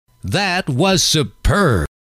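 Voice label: that was superb, male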